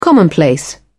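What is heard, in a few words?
"commonplace", British English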